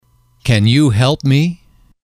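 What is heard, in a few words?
"can you help me", English, male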